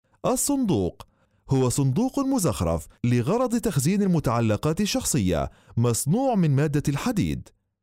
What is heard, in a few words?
arabic